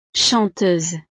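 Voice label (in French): "chanteuse", French; profesiones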